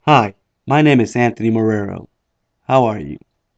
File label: microphone testing